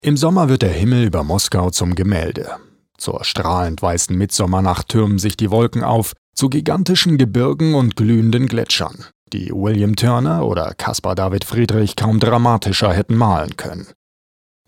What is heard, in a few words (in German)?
Kommentar, Neutral